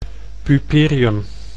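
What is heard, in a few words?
"pueperium", Dutch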